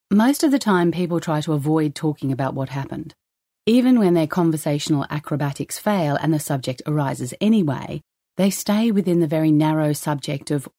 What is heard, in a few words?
Contemporary Fiction Australian; A Mothers Confession